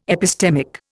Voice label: "epistemic", Indian